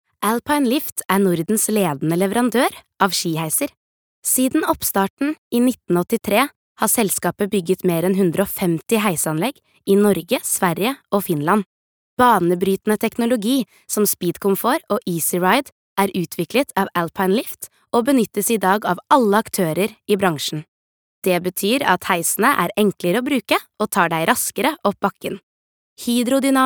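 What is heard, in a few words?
Norwegian corporate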